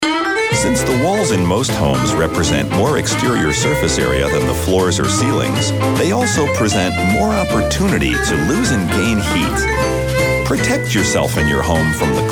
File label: Commercial